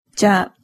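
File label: "ja", Japanese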